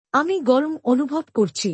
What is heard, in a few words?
I am feeling hot, Bangla; Expressing Feelings